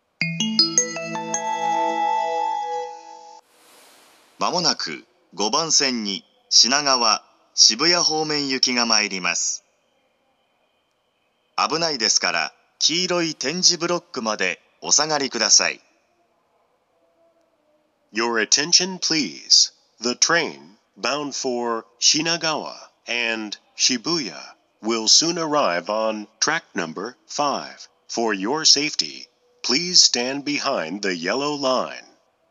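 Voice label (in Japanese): 接近放送, 東京駅; ５番線接近放送; 山手線; 品川・渋谷方面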